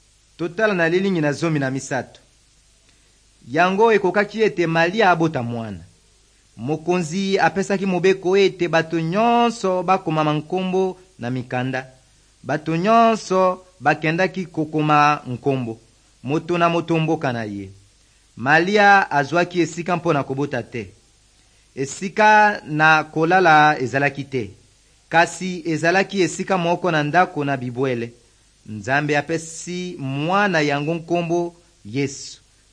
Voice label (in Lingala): good news lingala west, drama